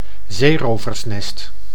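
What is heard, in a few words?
"zeeroversnest", Dutch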